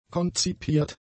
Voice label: "konzipiert", German; adjectives

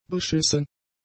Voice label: "beschissen", German; adjectives

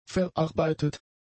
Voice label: "verarbeitet", German; adjectives

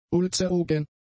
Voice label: "ulzerogen", German; adjectives

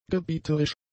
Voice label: "gebieterisch", German; adjectives

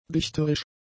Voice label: "dichterisch", german; adjectives

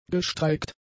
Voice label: "gestreikt", german; adjectives

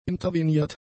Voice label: "interveniert", german; adjectives

